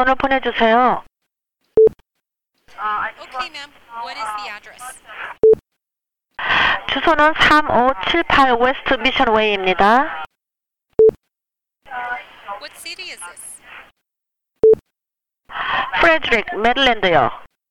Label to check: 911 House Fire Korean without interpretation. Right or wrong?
Right